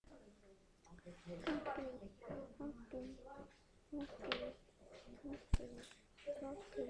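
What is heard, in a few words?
"puppy", spoken word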